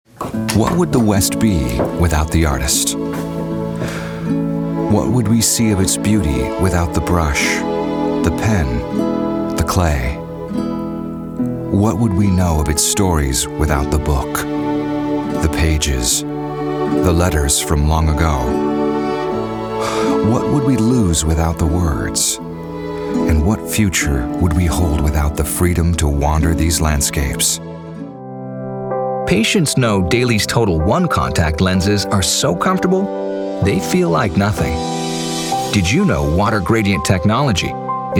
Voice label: narration, American Male Voice